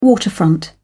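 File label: "waterfront", British English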